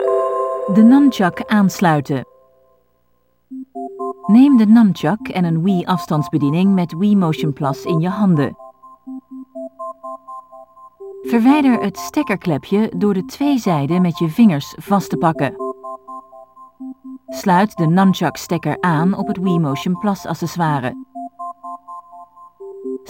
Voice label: Connecting the Nunchuk (Dutch); Skyward Sword Original Soundtrack